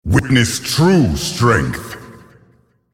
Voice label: male beast attack